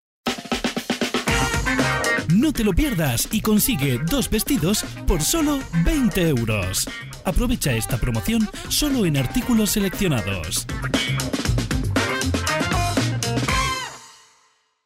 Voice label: ESP; HUMANA